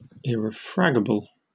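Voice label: "irrefragable", British English; pronunciations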